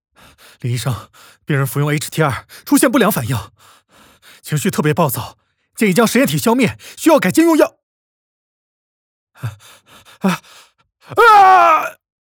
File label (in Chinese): 医院男子; 昆池岩医院男子